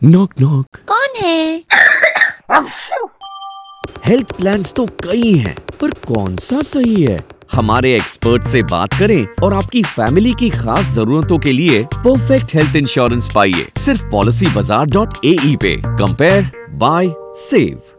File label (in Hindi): Hindi spot, radio; Knock Knock - 4 - Hindi